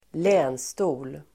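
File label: "länstol", Swedish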